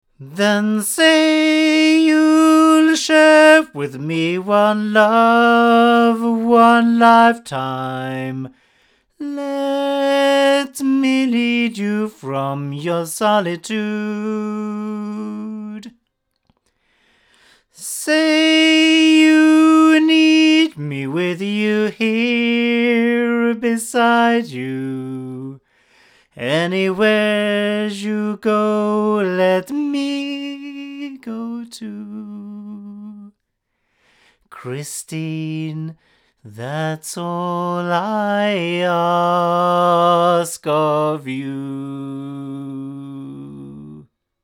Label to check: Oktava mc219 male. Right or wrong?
Right